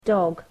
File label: "dog", english